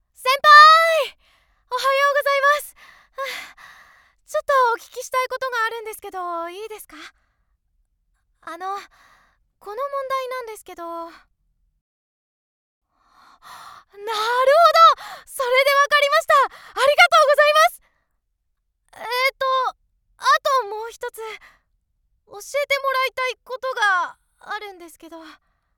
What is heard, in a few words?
schoolgirl